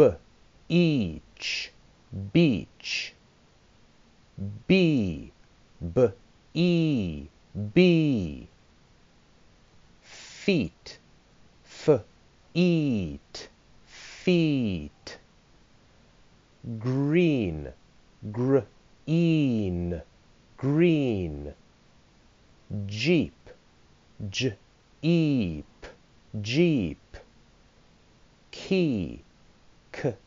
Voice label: Long e voc